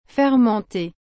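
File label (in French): "fermentée", French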